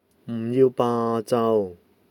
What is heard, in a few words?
"baa6", Cantonese